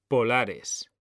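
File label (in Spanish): "POLARES", Spanish; mekanta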